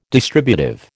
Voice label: "distributive", American